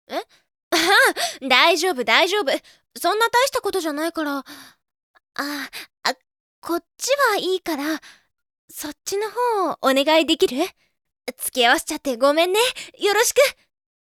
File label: girl